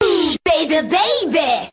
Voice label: "ooh baby baby", voice; ooh ahh

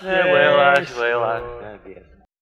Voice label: well well well i guess GRUMBLES; Voice

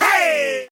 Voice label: "hey", voice

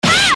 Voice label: ow female

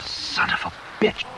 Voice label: son of a bitch male; Voice

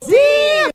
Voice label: "damn", expletives

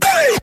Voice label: "hey", Voice

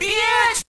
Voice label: "bitch", short group

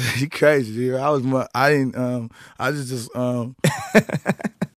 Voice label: you crazy i's just i um heh heh, Voice gibbrish

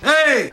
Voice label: "hey", voice

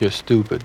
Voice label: you're stupid male

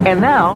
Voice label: and now announcer

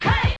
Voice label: "hey", female

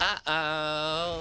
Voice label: "uh oh", boy

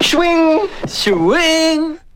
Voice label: "schwing schwing", voice

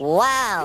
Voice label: "wow" cartoon; ooh ahh

